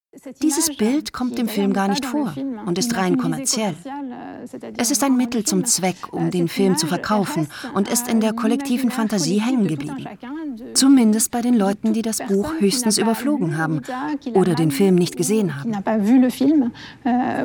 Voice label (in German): Voice Over, frauen; Arte; Den Teufel im Leib